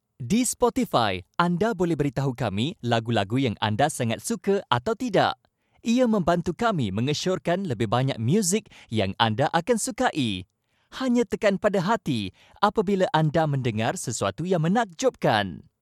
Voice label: malay commercial; Malaysia